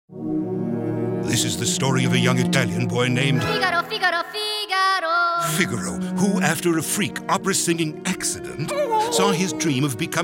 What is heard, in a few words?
Phone call